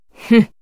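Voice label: story, Japanese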